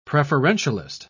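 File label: "preferentialist", English, British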